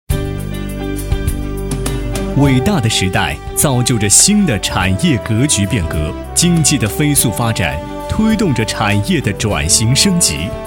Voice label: 男,专题 正式朝气; 赫联集团

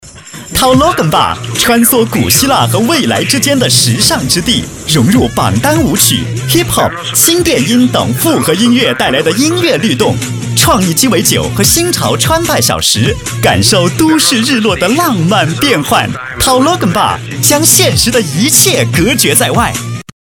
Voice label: 男 促销广告 青年电台时尚年轻广告酒吧促销

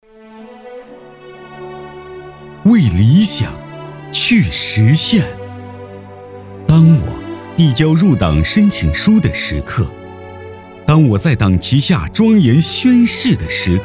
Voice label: 男 朗诵

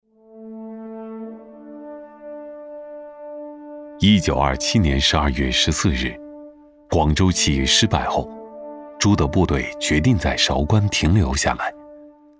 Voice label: 男 沉稳讲述 党建纪录片

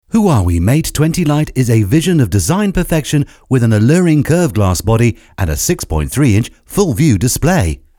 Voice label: 试音-年轻轻快语调

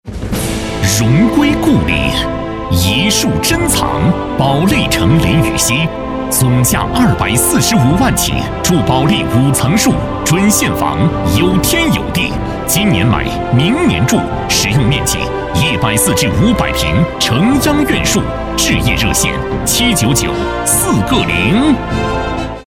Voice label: 男 地产广告; 保利地产

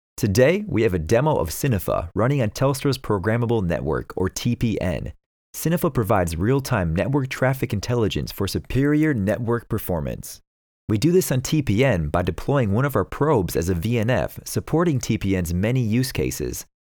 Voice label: 外籍英男 干音 讲解

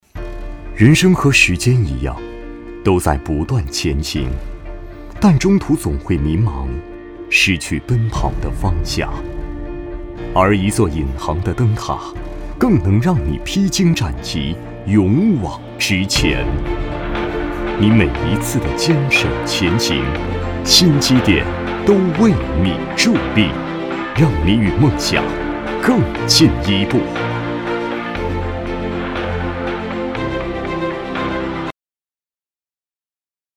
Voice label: 男-内心独白-人生

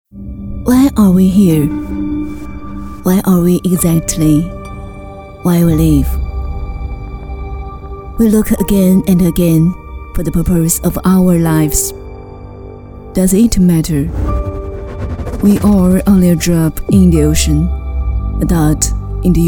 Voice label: 女 英文 磁性

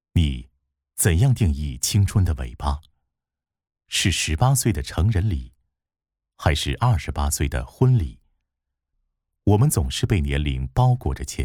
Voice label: 男 独白

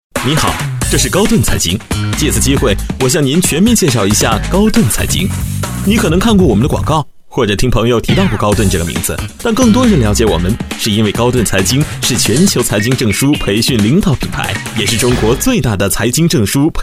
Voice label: 男 广告; 高顿财经

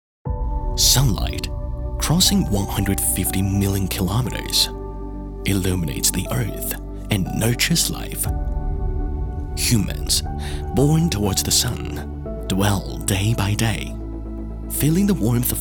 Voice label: 英男 英文 中青年 品质专题企宣

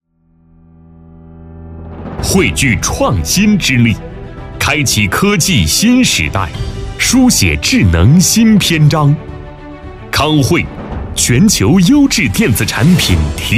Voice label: 男 宣传片; 康汇企业

